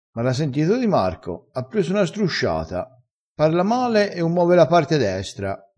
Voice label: "strusciata", vocabolario dialettale

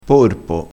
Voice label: "porpo", crespinese, vocabolario dialettale

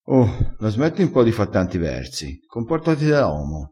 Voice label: "fa versi", crespinese

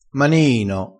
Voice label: "maniino", crespinese

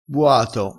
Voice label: "buato", crespinese

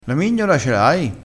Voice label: "mignola", crespinese

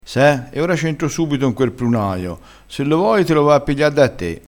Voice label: "prunaio", vocabolario dialettale

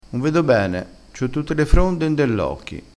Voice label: "fronda", dialettale crespinese

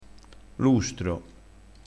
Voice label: "lustro", crespinese dialettale

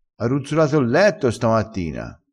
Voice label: "ruzzola", crespinese

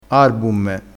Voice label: "arbumme", crespinese

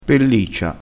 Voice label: "pelliccia", crespinese, vocabolario dialettale

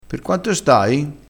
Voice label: "pendolone", crespinese

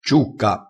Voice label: "ciucca", crespinese

